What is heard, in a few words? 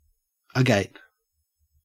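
"agape", Australian English; pronunciations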